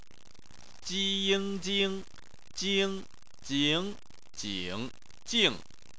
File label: "jing", Chinese